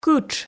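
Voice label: "кытш", Komi, female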